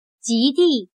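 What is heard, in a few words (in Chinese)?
"及第" Mandarin Chinese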